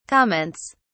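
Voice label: "comments", English, British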